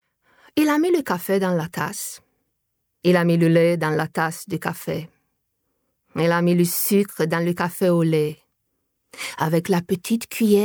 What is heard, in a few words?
French; Big Mouth Voices